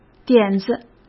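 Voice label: "点子", Mandarin Chinese; chinese flashcards; hsk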